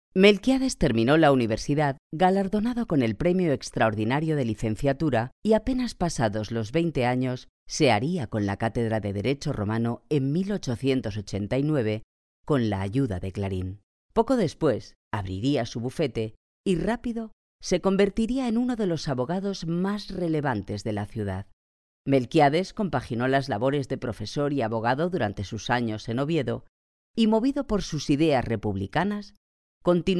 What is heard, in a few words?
locutora spanish voiceover documentary